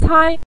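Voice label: "cai" Mandarin Chinese, neutral tone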